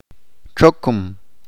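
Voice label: "Huésped", Chalchiteko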